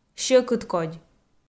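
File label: "сьӧкыдкодь", Komi, female; kpv audio dict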